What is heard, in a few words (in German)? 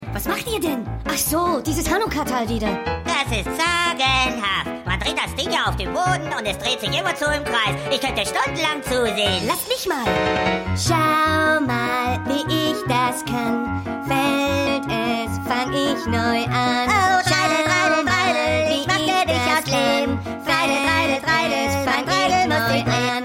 Sprache Gesang; Stan; South Park